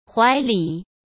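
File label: "怀里", Mandarin Chinese; hsk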